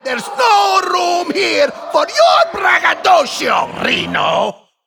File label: Male Ethereal Emote Start